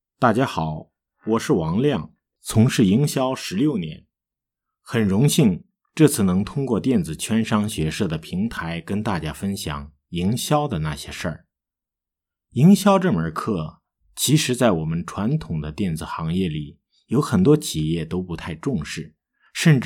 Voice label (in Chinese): 营销